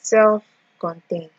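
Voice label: "self contain", Nigerian Pidgin, female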